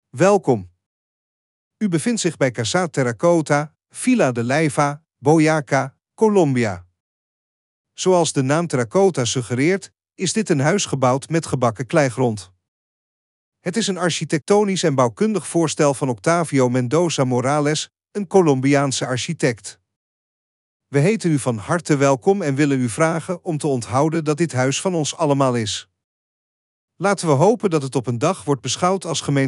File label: Dutch